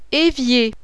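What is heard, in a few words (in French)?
"EVIER", French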